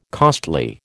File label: "costly", American